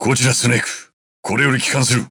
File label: voice sample JP; Snake